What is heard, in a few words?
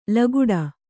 "ಲಗುಡ", Kannada